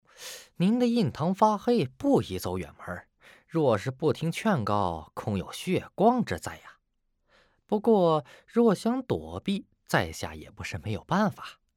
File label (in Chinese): 中年